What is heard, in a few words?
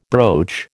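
"broach", American English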